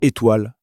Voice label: "étoile", French

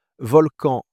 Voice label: "volcan", French

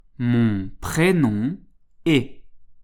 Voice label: "mon prénom est", French

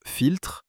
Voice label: "filtre", French

